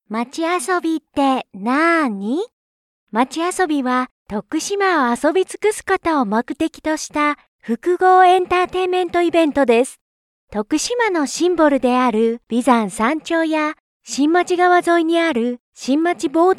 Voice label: japanese animation